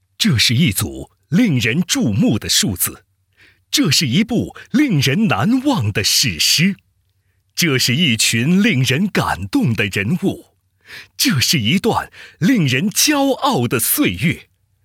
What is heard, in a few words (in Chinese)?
男 专题; 广州铁路集团公司